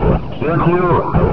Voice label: throw grenade, combine soldier, far; hl2

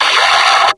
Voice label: fire, combine soldier; hl2

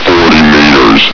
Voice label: "forty meters", Combine soldier; hl2

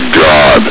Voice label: "god", Combine soldier; hl2

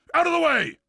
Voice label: out of the way loud, combine soldier; hl2

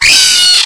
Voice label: announce, stalker; hl2

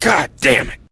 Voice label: shock; borealis; hl2

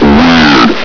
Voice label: "weird", combine soldier; hl2

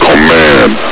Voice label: "command", combine soldier; hl2

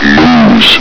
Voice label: "moves", combine soldier; hl2

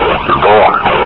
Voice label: lost long, combine soldier, near; hl2

